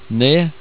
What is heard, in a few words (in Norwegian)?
"ned" Norwegian